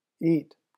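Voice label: "eat", English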